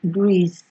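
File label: "grease", Nigerian Pidgin, female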